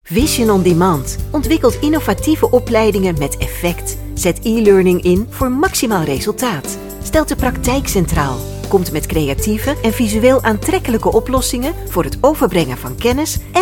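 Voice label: Dutch Commercial; GoLocalise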